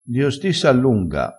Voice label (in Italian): "a di", Crespinese